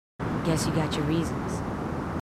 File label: marines female, marine tough